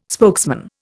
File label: "spokesman", Indian